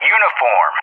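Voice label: "Uniform", English; JTAC; Alphabet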